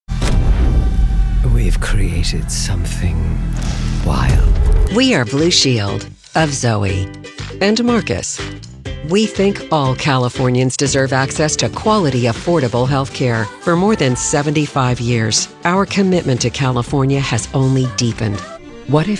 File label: commercial women